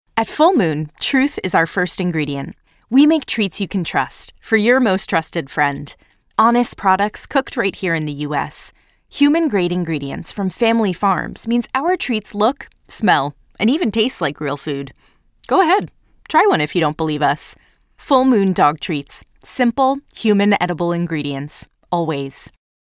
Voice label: Explainer Video, authentic honest conversational